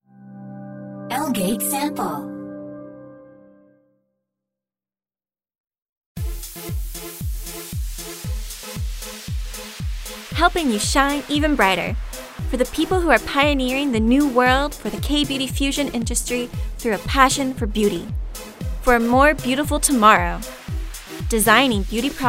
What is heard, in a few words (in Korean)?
영어 여 밝은 톤